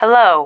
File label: "hello", female